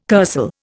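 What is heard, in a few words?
"kursaal", Indian English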